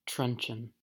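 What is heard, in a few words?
"truncheon", American English; pronunciations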